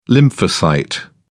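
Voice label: "lymphocyte", English, British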